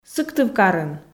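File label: "сыктывкарын", Komi, female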